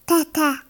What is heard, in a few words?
toddler saying dadda